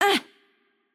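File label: Hurt Light, Czech; cs CZ; VO; bloom minigame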